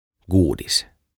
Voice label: "Godis" "Karkki"